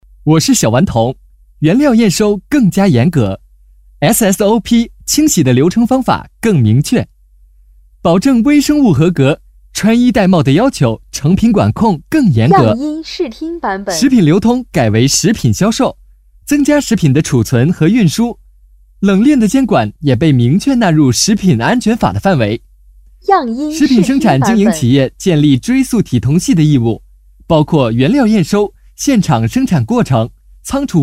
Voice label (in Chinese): 男 广告 年轻时尚